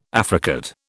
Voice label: "affricate", British